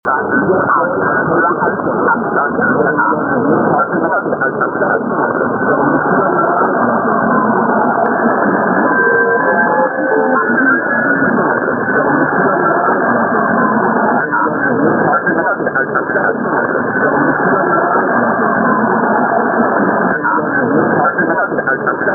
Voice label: Weak id of this rare station, repeated a few times; Watertown, NY; 790; WTNY